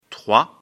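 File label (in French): "trois", French; afrikalan; lecture fr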